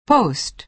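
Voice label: "post", American English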